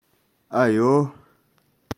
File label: "Ayouh", Touareg